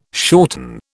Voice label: "shorten", British

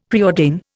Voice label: "preordain", Indian; Dictionary

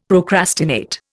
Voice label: "procrastinate", Indian; Dictionary

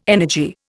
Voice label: "energy", Indian

